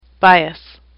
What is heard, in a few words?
"bias", English; AWL